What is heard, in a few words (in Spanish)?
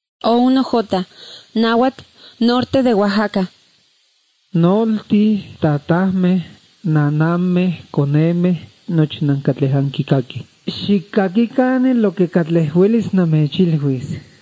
spanish mexico